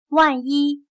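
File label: "万一", Chinese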